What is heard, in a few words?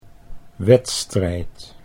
"wedstrijd", Dutch